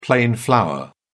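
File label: "plain flour", British English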